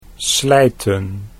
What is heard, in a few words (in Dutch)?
"slijten", Dutch